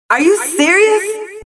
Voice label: DJ Effect, "Are You Serious"